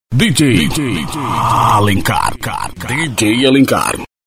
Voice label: locutor dj

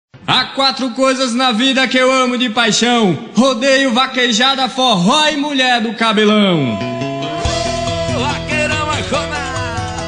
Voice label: locutor

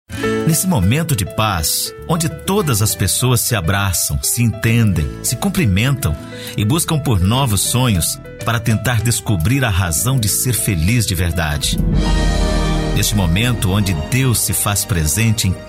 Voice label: Locutor spot mensagem de natal

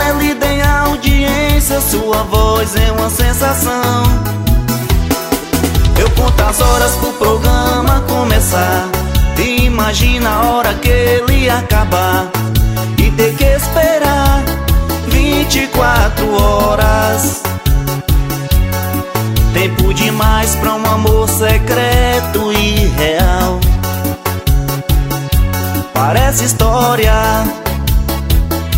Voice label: locutora

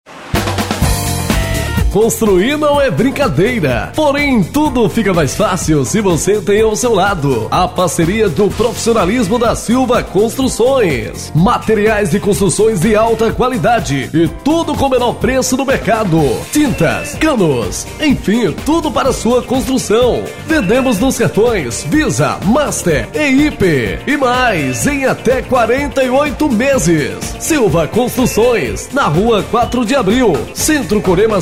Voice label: locutor spot comercial; silva construcoes

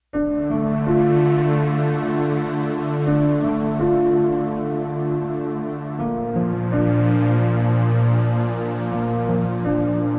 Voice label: voz introdução

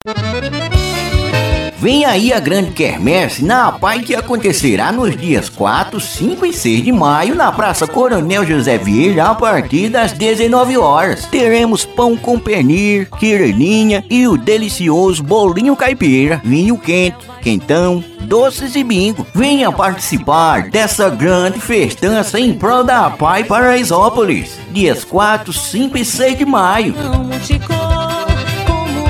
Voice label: banco de voz locutor vinheta

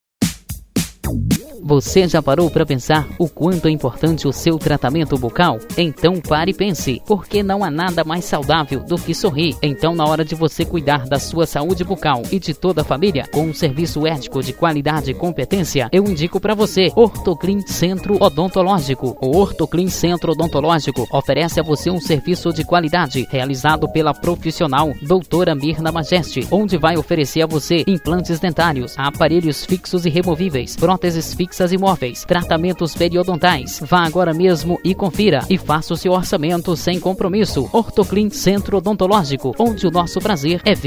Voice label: spots

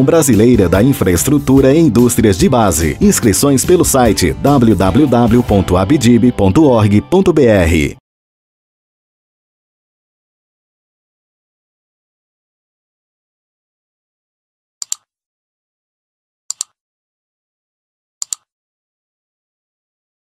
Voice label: anuncio para moto som propaganda; moto som publicidade carro de som

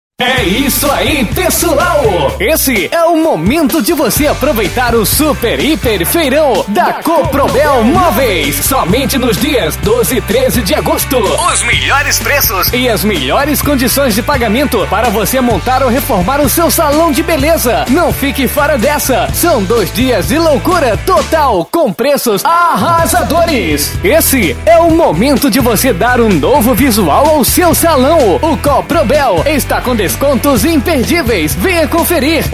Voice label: comercial; coprobel

